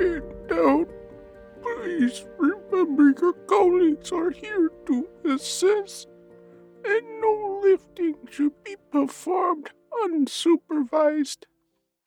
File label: Old man Handling Delicate Equipment